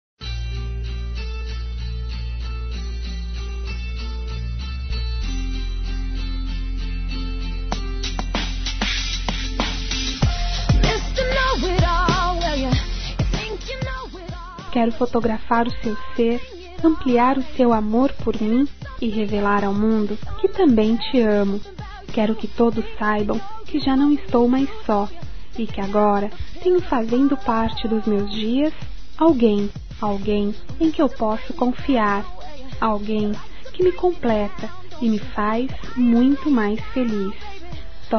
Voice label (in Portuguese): Fotografia Feminino; Telemensagens 2017; Românticas